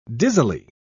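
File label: "dizzily", British English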